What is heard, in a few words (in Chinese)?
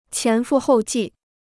"前赴后继", Chinese